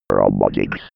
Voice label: 90s computer voice

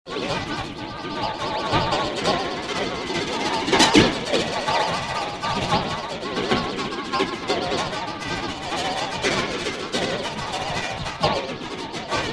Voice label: alien talks

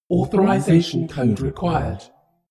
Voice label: female status report

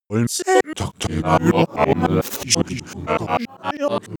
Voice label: glitch talk fx

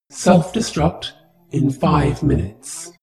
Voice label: space shuttle female status report

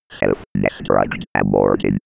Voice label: old computer voice

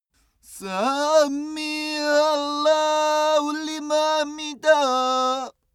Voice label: male pray arabian

